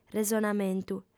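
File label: "resonamentu", female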